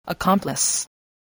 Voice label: "accomplice", English voice

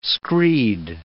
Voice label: "screed", English

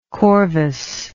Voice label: "corvus", English

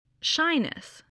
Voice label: "shyness", spelling

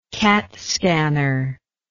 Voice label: "catscanner", English

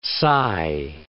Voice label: "sigh", English

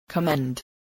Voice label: "commend", voice

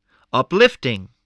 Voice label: "uplifting", spelling

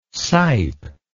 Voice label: "sipe", words

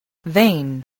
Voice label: "vein", English

